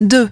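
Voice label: "two", English; iOS 4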